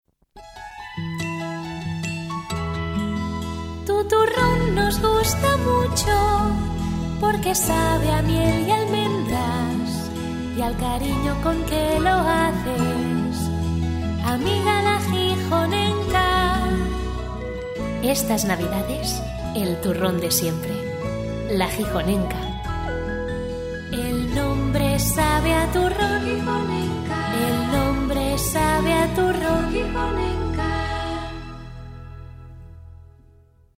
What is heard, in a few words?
female spanish comercial